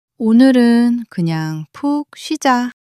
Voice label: sadness, monologue